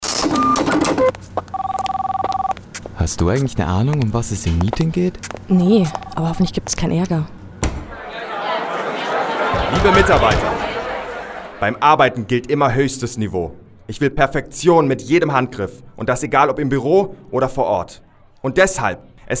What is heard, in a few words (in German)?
Werbung Pizza Bar; Toskana